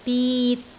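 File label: "bit3", Cantonese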